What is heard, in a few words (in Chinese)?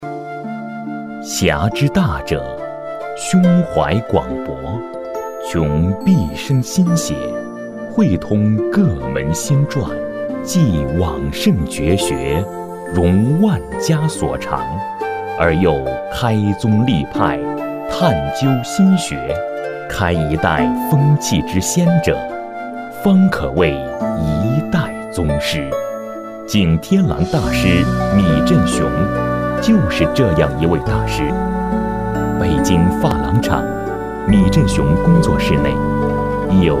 男-深情讲诉; 人物介绍; 人物专题